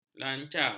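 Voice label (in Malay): "lanca", Malay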